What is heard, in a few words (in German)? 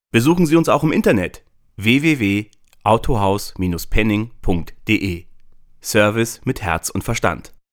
Autohauswerbung